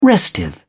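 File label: "restive", English, British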